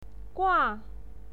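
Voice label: "gua", Mandarin Chinese, fourth tone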